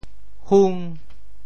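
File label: "hung5", Teochew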